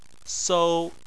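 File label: "sou", Mandarin Chinese, first tone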